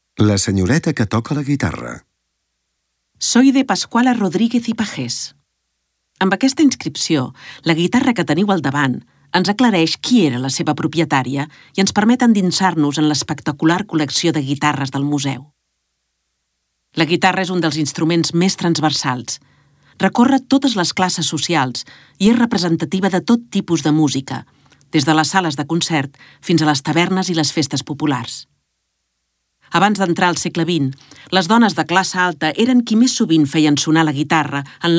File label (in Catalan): audioguia catala; delamusica